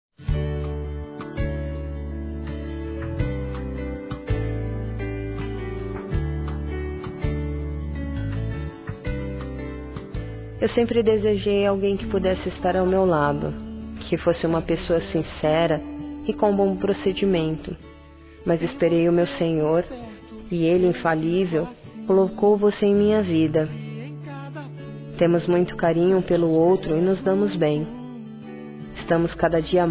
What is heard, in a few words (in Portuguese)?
Evangélico Feminino; Dia Dos namorados; Telemensagens 2017